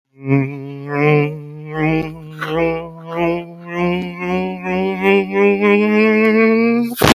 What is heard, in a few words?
voice message